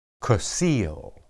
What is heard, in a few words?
"kesil", Hebrew